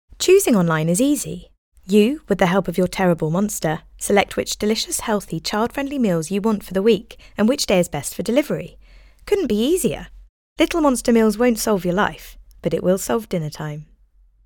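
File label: English, female, commercial; advertising voice over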